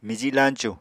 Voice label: "miji lañcu", Apatani

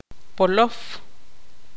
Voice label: tzutujil

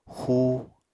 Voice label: "xú", Apatani

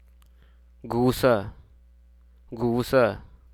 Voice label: "Beautiful Sibia", Hruso

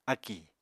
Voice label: "akì", apatani

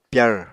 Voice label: "pyar", apatani

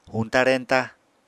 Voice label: "huñta-reñta", Apatani

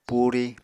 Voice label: "puri", Apatani

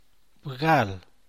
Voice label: "bgal", San Mateo Macuilxochitl Zapotec; how much; ¿cuánto?

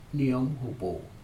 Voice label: round house, Aneityum

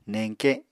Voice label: "neñke", Apatani

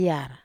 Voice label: "yar", Wamesa

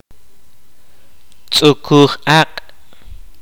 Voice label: "lie", kaqchikel

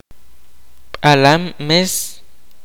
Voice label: "puma", kaqchikel

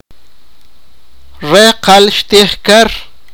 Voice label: sipakapense; he did not eat fish

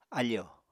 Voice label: "áfò", Apatani

